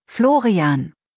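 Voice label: "Florian", German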